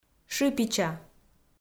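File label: "шыпича", Komi, female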